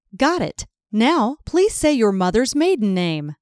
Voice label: Got It Moms Name, IVR